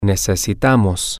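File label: "necesitamos", Spanish, male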